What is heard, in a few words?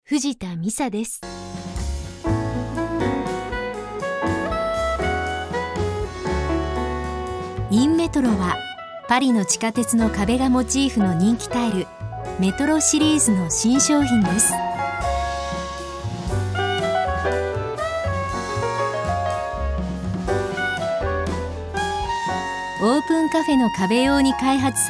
female corporate video